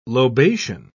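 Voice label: "lobation", English, British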